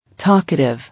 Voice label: "Talkative", English